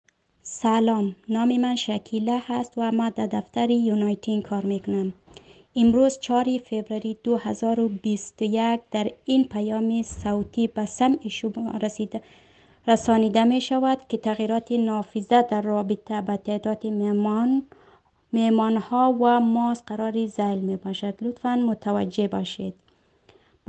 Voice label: Updates Audio Dari Persian; covid-19